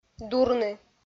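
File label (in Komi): "дурны", Komi, female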